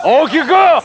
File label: pain